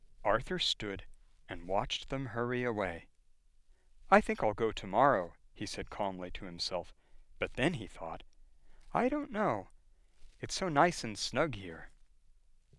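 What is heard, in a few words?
General American accent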